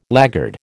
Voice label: "laggard", American

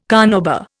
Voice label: "carnauba", Indian

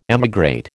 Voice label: "emigrate", American

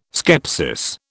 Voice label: "skepsis", British

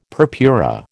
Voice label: "purpura", American

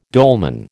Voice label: "dolman", American

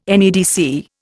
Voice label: "NEDC", Indian

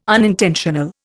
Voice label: "unintentional", Indian

